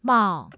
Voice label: "mao4", Mandarin tone

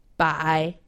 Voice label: "bai", Chinese; Survival Chinese

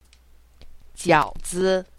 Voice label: "jiaozi", Chinese; Survival Chinese

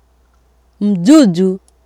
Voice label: "mzuzu", swahili

